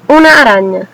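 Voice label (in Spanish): spider desc spanish; insects; cartoon; tuxpaint-stamps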